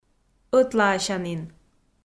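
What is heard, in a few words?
"ӧтлаасянін" Komi, female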